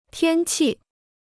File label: "天气", Chinese